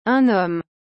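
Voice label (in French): "Un homme", French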